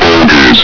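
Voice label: "bogies", male; hl2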